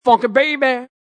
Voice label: Vocal, Funk vocal loop sample.